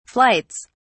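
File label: "flights", British English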